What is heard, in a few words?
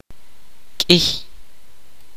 "enough", kaqchikel